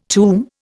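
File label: "tombac", Indian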